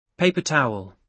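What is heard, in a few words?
"paper towel", British English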